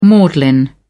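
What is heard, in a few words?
"maudlin", British English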